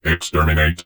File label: cheavy provoke, vox; Dystopia; vox new